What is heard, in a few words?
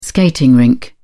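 "skating rink", British English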